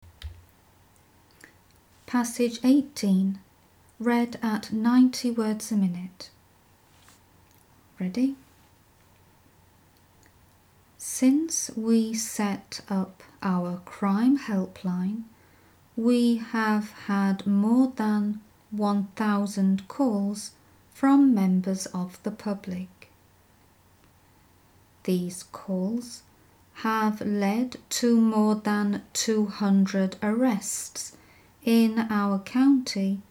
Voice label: 90wpm; Teeline